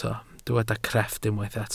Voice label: "crefft", welsh